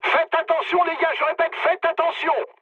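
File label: near waypoint, mood medium, French; War Thunder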